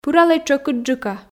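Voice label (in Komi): пыралӧй тшӧкыдджыка, Komi, female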